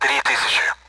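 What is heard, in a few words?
Speech Sound Russian Common AWACS Numbers; DCS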